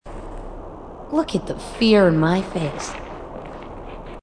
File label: marine tough